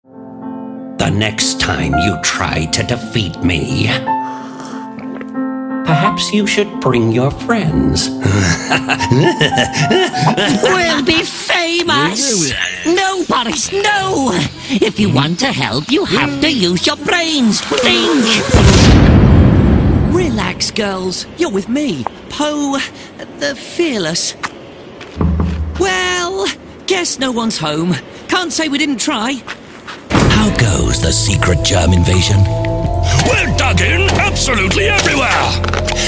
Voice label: male english multiple accents character compilation; Devine Voices